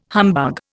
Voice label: "humbug", Indian

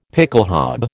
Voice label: "pickelhaube", American

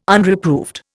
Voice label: "Unreproved", Indian

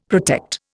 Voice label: "protect", Indian; Dictionary

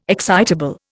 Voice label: "excitable", Indian

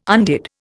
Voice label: "undid", Indian

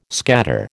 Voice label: "scatter", American

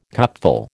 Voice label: "cupful", American

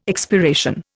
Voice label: "expiration", Indian

